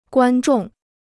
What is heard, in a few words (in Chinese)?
"观众", Chinese